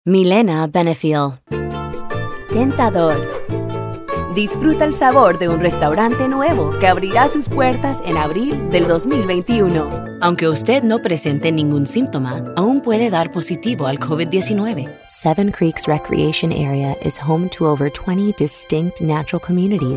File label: Spanish